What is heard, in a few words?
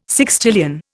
"sextillion", Indian